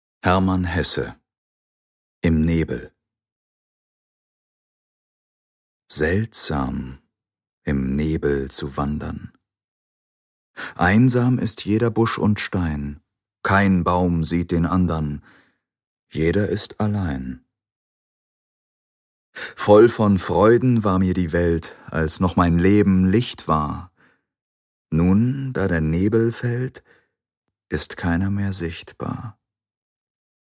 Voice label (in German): DEUTSCH MALE Hoerbuch Lyrik